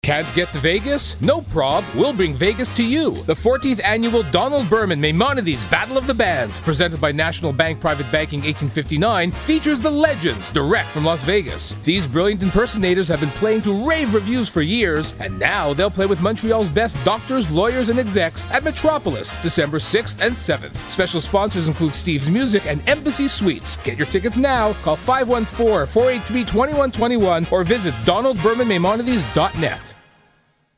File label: radio spot